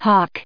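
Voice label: "hawk", English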